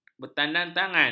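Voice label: "bertandatangan", Malay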